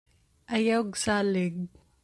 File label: Cebuano